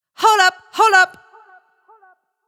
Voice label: One Shot "Hold Up" Wet, Am, 110